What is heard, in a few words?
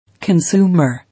"Consumer", English